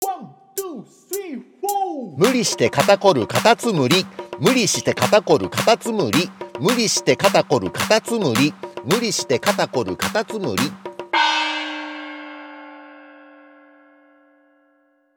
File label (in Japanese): 早口言葉リズム（中級編）